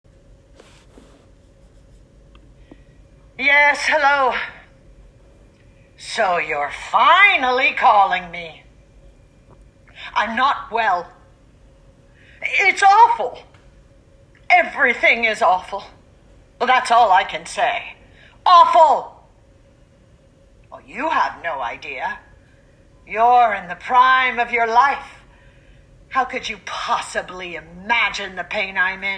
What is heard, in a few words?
voice English short